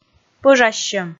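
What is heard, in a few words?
"пӧжассьӧм", Komi, female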